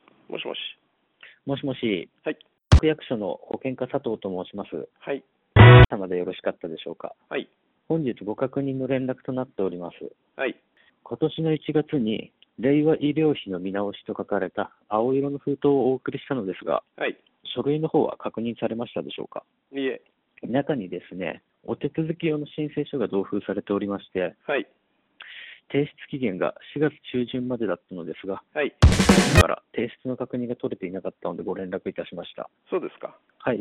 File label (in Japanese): voice, police